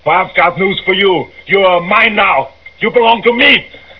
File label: news